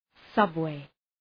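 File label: "subway", English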